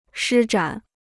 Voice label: "施展", Chinese